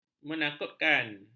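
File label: "menakutkan", Malay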